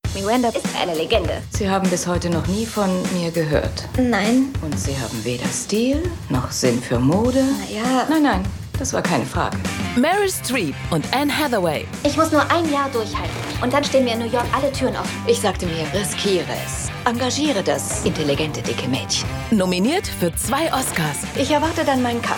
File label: Trailer; Teufel Trägt Prada